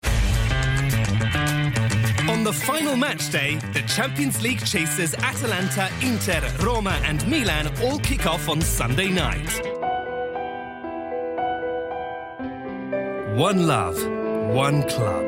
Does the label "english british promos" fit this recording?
Yes